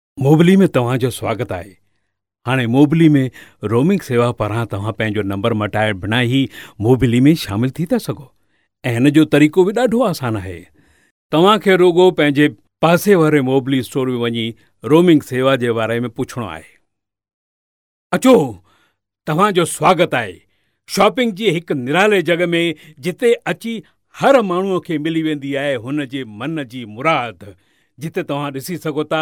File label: Sindhi Male